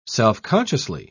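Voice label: "self-consciously", British English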